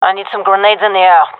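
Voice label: request grenade, female; vo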